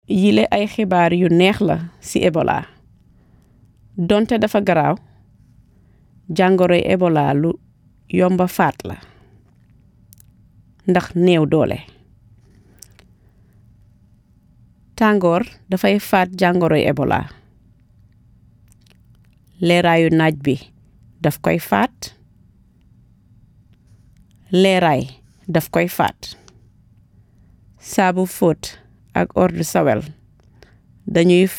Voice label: wolof; vhf; ebola